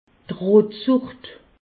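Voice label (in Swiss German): "rotsucht", Alsatian; medecine; rougeole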